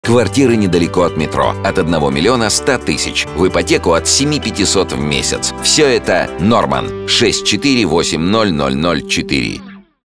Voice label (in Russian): аудиореклама диктор; ЖК НОРМАНН; запись голоса монтаж сведение ролика